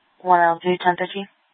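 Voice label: Scottsdale Police Department South Dispatch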